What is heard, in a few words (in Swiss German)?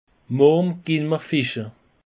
"morje", alsace; peche